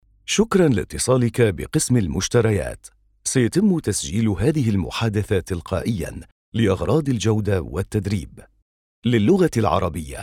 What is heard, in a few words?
male, Arabic, Arabic and English with Arabic accent IVR compilation